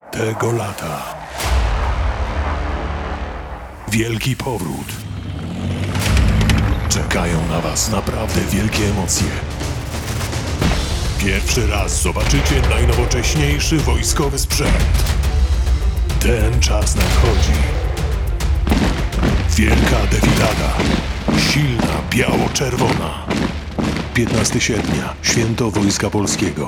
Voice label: radio